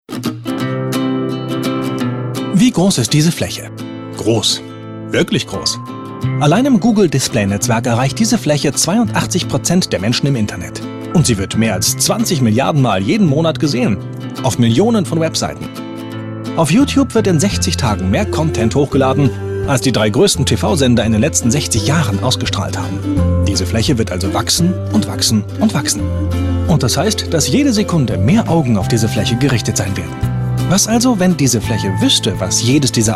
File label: male german commercial; ideasonora